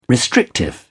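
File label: "restrictive", English, British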